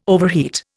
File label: "overheat", Indian